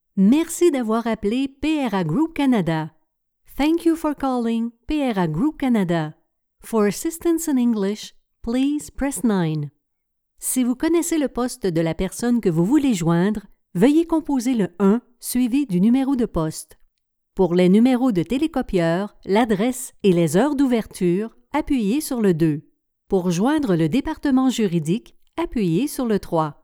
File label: IVR; PRA GROUP CANADA